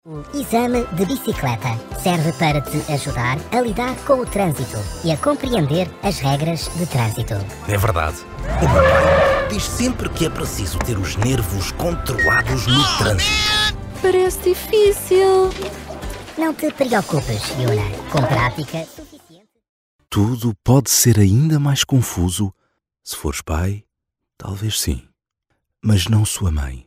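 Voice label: Portuguese character reel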